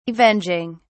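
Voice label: "avenging", English, British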